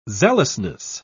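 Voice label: "zealousness", British English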